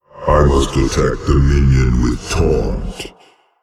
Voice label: Male Old God ERROR TAUNT